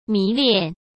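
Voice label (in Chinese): "迷恋", Mandarin Chinese; chinese flashcards; hsk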